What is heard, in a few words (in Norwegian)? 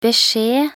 "beskjed", nb NO